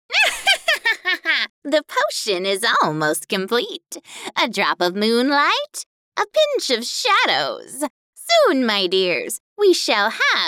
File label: Animation; female; custom home studio